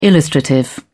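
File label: "illustrative", British English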